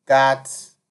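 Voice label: "gats", Nigerian Pidgin, male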